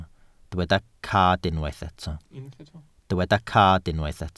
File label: "cad", welsh